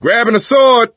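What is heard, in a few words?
melee weapons, voice, male; Left 4 Dead 2; coach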